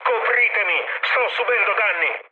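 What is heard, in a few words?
Italian aircraft damaged mood med, speech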